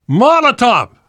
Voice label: spot grenades, survivor voice; namvet; Left 4 Dead 2; left4dead2 dlc2

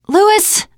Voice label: name louis, teengirl, voice; player; survivor

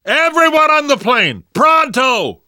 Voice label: arrive plane, survivor voice; namvet; Left 4 Dead 2; left4dead2 dlc2

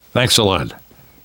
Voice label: thanks, namvet, survivor voice; Left 4 Dead 2; left4dead2 dlc3

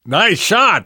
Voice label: nice shot, namvet, survivor voice; Left 4 Dead 2; left4dead2 dlc2

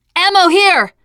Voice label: spot ammo, teen girl; player; survivor; voice

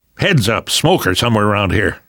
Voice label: heard smoker, survivor voice; Left 4 Dead 2; left4dead2 dlc3

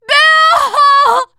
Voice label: grief, teen girl

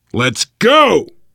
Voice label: move on, voice, biker

